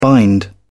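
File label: "bind", English, uk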